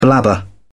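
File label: "blabber", British English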